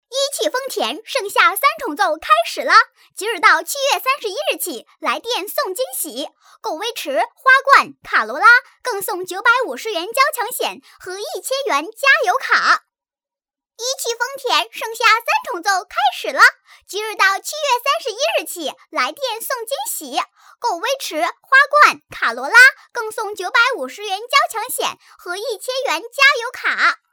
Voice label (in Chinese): 童声, 男童, 国语女声配音; 一汽男童